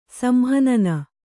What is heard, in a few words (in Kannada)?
"ಸಂಹನನ", Kannada